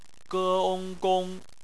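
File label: "gong" bopomofo, Chinese; phonetics; bpmf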